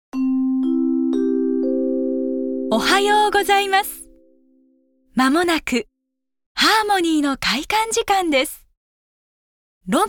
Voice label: 館内アナウンス

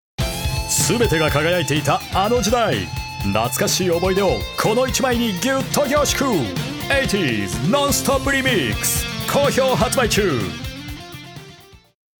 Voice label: 艶のあるハリ告知

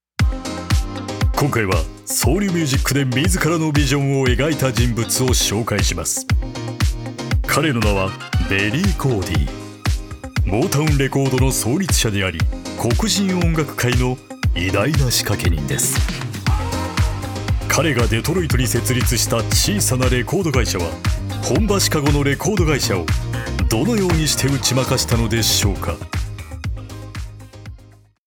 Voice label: 癖のある低音

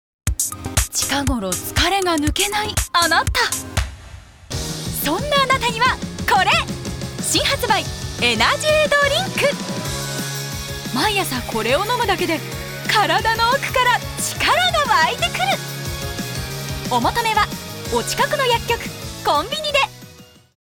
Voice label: 通販風明るくコミカル

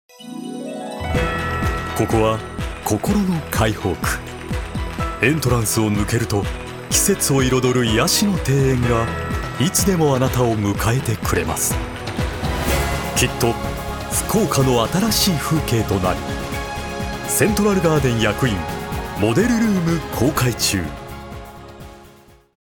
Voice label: 落ち着いたマンション CM

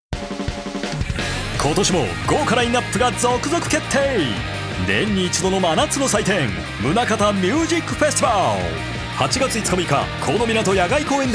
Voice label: テンポのいいハリ告知

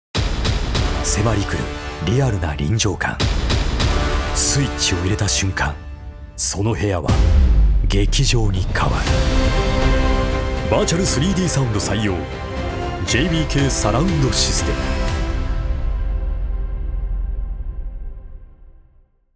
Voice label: ハード系ウィスパー

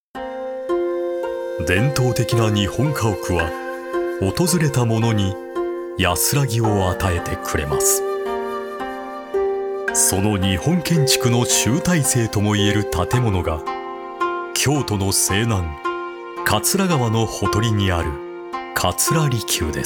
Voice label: 落ち着いた歴史建造物紹介

